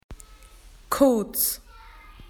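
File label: "short", Australian English; PictureDictionary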